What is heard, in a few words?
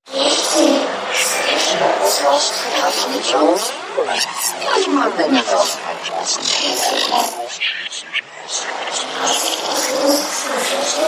Vengeful Whispers Alien Voices